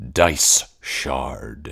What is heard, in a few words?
dice shard, voiceover